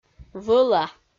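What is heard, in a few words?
"вӧла", Komi, female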